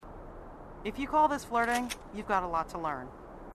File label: female marine, sassy